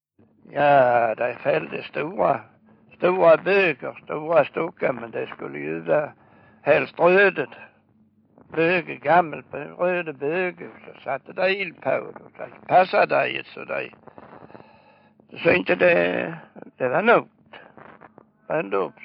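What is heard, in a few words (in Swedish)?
Örkelljunga Skåne, Svenska sagor, dialekter; Folkminnen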